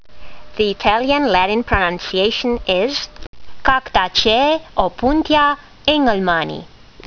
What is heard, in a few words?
latin; Opuntia engelmannii